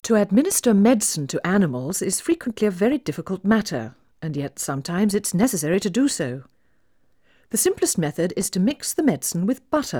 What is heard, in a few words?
Female speech English Mono output noised; 4bit